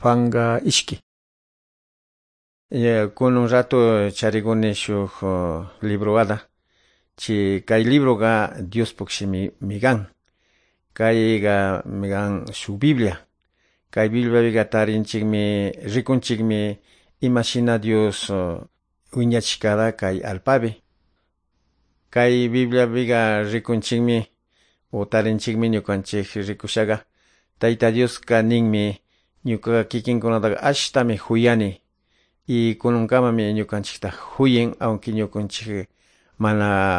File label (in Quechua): good news quichua de calderon